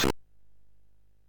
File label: MOS6581R3, Electronic Speech Systems